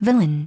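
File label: "villain", English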